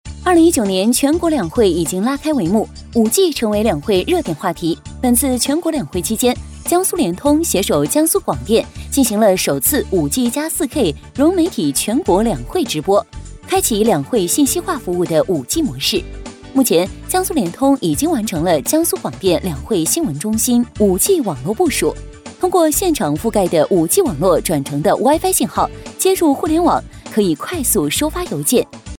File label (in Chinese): 女-新闻-轻松; 两会